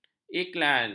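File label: "iklan", Malay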